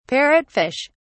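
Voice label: "parrotfish", British English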